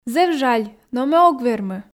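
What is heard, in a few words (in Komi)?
зэв жаль но ме ог вермы, Komi, female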